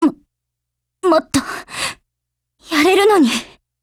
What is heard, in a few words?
BREAK JP